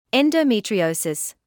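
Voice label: "endometriosis", British English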